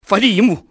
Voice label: take cover, enemy cantonese male; XBOX